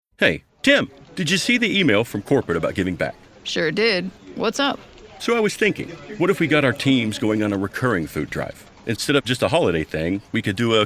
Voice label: E Learning Dialogue